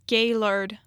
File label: "Gaylord", Michigan pronunciation